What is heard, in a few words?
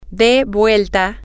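turn, Spanish, female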